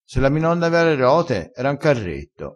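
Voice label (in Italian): "se la mi nonna rote", dialettale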